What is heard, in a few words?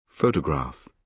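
"photograph", British English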